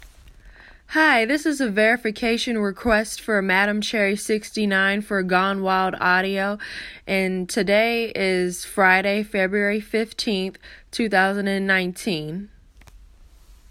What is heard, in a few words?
Verification, Female; GWA Verification